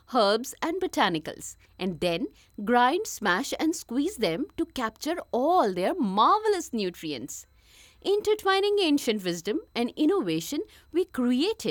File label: Turmeric ad English; Sumatit